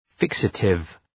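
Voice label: "fixative", English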